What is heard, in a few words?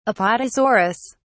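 "apatosaurus", British English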